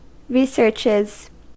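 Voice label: "researches", US English; pronunciations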